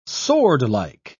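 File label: "swordlike", British English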